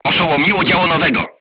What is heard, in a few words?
voice message gunlayer contused, crew tanks polish